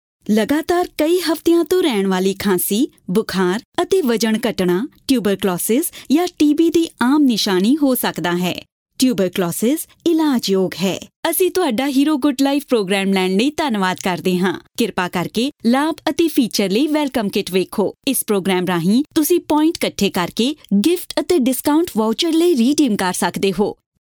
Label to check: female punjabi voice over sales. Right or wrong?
Right